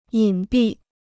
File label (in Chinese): "隐蔽", Chinese